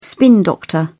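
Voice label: "spin doctor", British English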